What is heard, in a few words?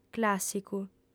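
"clàssicu", female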